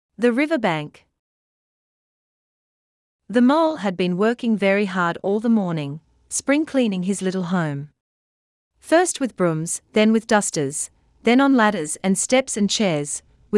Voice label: australian